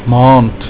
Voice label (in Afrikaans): "maand", Afrikaans